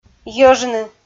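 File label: "йӧжны" Komi, female; audio dict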